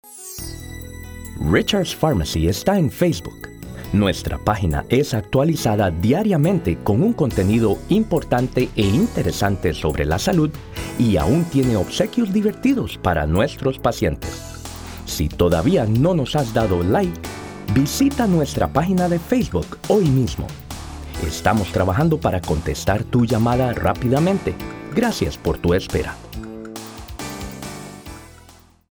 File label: Spanish On Hold Sample